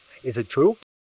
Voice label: Voice Acting, es